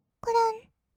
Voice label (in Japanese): くるん, kawaii voice; ASMR; 色々なワンショット